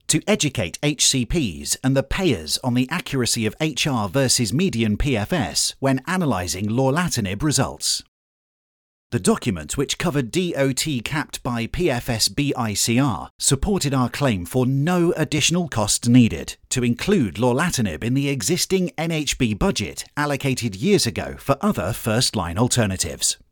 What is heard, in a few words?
English Voice over Education